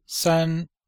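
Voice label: "san1", Chinese; Cours-de-Chinois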